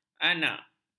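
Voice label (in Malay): "anak", Malay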